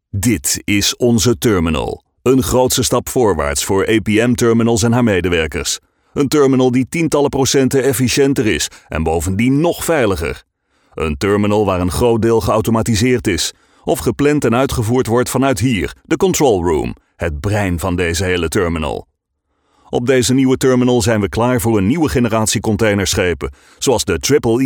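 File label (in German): werbung, Dutch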